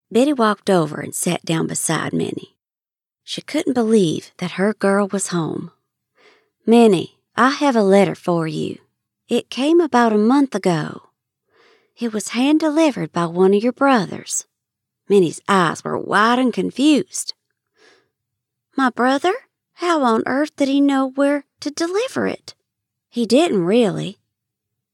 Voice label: english american audiobooks